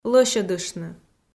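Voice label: "лӧсьӧдыштны", Komi, female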